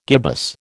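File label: "gibbous", American English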